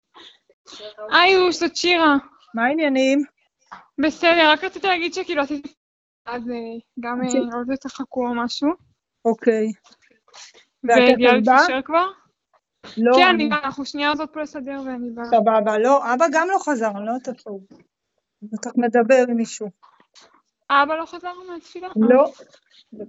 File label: Call recording